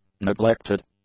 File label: "neglected", english; adjectives